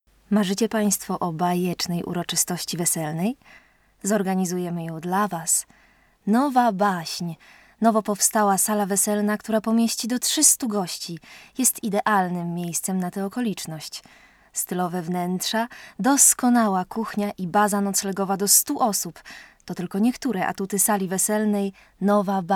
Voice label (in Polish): reklama